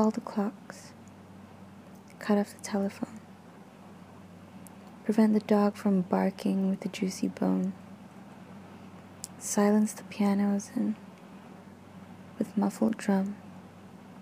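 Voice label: Funeral Blues, female; by W. H. Auden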